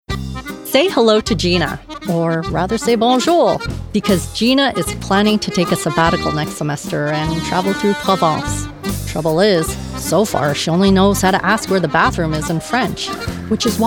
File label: EXPLAINER; BABBLE